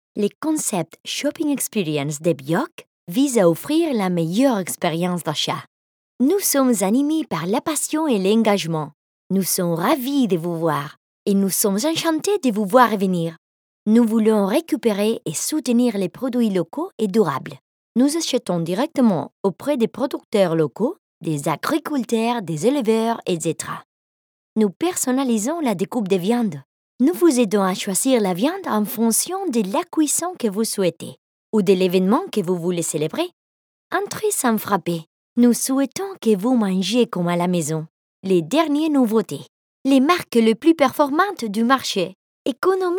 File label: FR Commercial